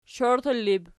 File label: "shoirtallib", Archi